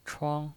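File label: "chuang", Mandarin Chinese pinyin voice, first tone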